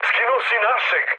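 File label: Serbian player killed ally mood med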